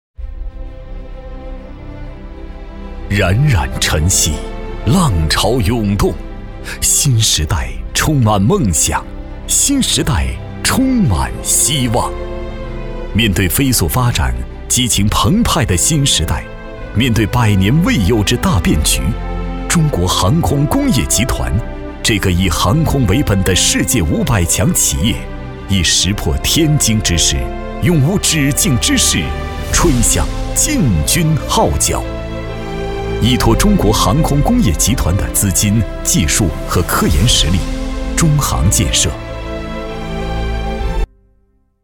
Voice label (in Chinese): 男 航天梦宣传片 坚定有力